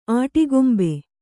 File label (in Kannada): "ಅಟ್ಟಗೊಂಬು", Kannada